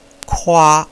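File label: "kua", Mandarin Chinese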